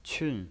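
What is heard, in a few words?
"qun", Mandarin Chinese, fourth tone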